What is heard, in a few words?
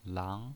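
"lang", pinyin, Mandarin Chinese, second tone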